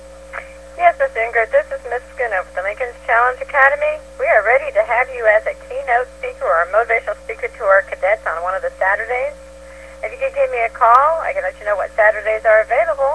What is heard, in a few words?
phone; Answer the phone for one more truth